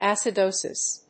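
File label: "acidosis", English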